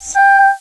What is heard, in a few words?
Solfege, Claire's Voice; Opcode Systems